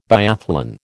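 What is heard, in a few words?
"biathlon", American English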